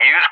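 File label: "use", English; JTAC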